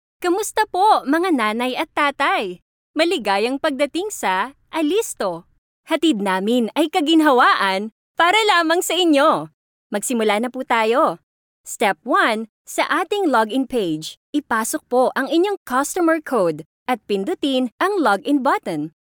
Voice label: tagalog corporate videos